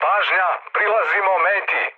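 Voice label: Serbian near waypoint mood med; War Thunder; speech srb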